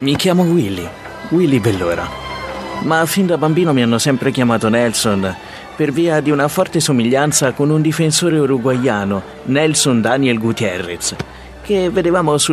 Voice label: Italian male, audiobook

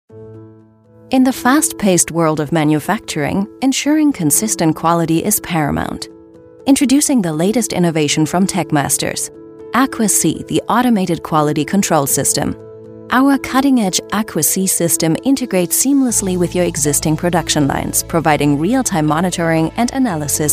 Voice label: german female english

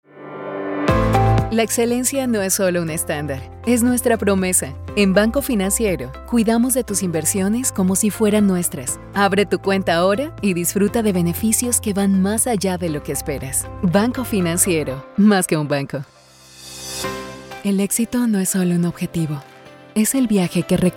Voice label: latin spanish female spanish montage

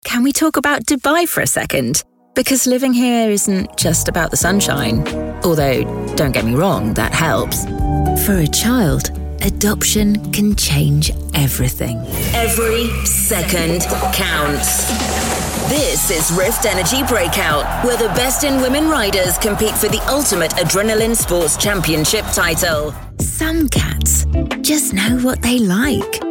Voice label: commercial reel, Conversational Upbeat Voiceover

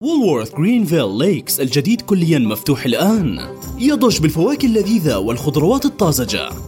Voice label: arabic male commercial

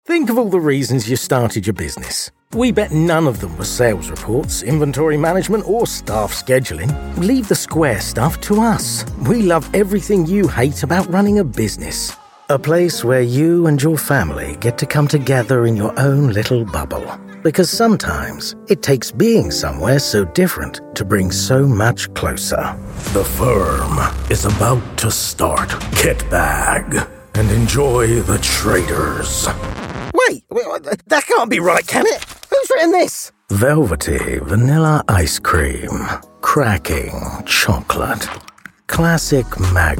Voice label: commercial

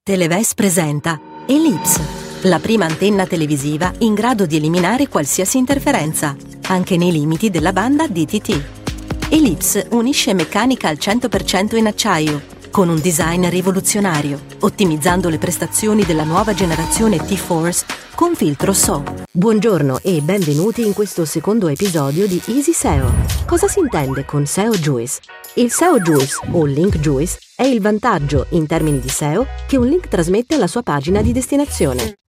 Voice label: italian voice corporate

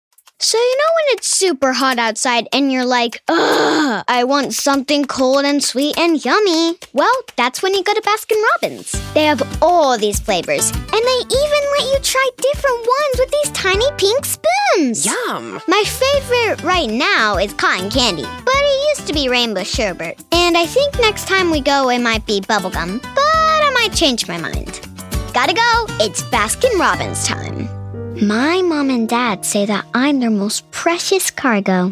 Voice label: american, Friendly Warm Voice Over

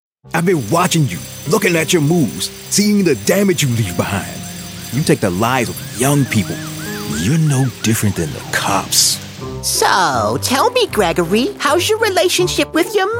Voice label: american voiceover character

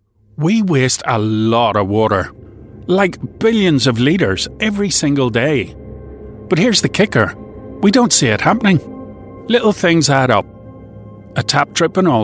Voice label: explainers

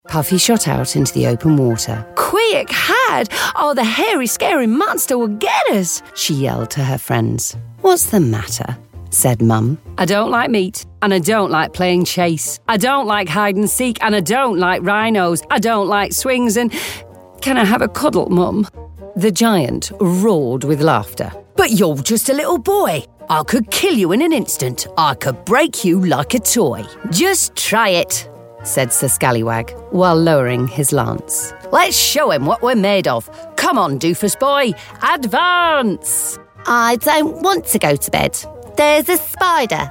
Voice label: character voices

